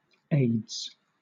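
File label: "aides" British English; pronunciations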